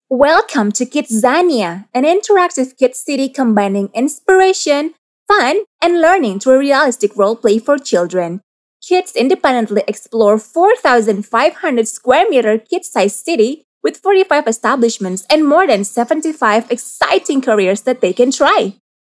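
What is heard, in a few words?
casual English voice over, female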